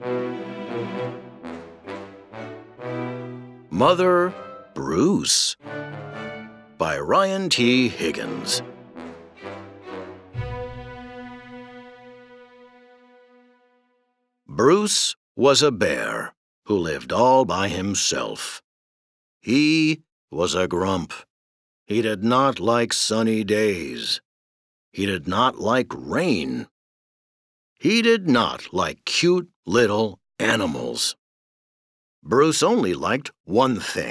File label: Audiobooks for Children